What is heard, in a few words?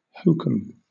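"hokum", British English; pronunciations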